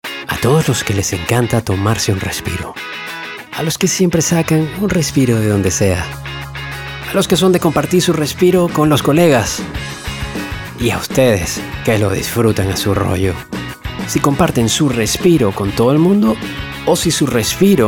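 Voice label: generico, Venezuelan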